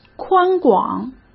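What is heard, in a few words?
"宽广", Mandarin Chinese; hsk; chinese-flashcards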